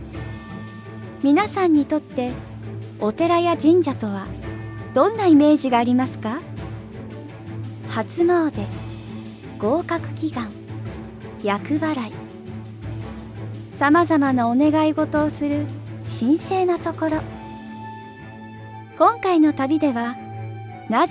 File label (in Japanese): パターン 番組ナレーション